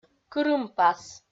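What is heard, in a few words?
"кырымпас", Komi, female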